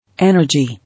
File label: "Energy", English